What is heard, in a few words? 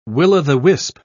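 "will-o'-the-wisp", British English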